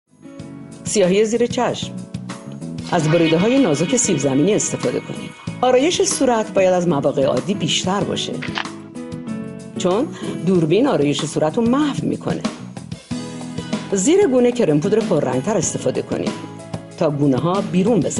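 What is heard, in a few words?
farsi female commercial; voice demos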